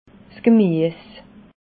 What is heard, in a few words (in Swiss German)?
"gmies", alsace